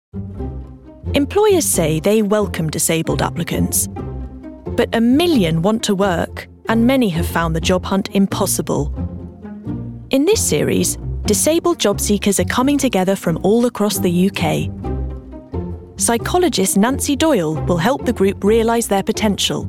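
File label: documentary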